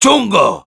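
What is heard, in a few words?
Sniper jeers, Spanish; ¡Chungo!; Respuestas del Sniper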